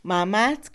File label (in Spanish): doce, Mixe, Mujer; Números; INALI